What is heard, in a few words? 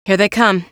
combat gradual quiet; hl2; ep1; alyx